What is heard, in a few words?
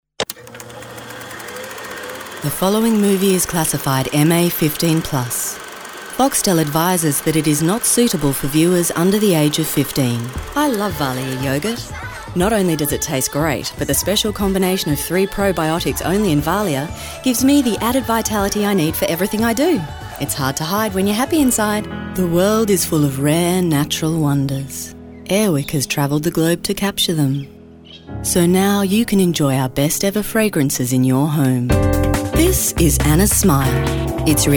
Voice Over